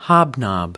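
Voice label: "hobnob", American English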